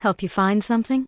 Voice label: Human Female Official NPC Vendor